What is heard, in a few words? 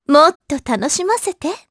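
Vox Skill, Japanese; Epis; kingsraid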